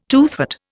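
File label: "toothy", Indian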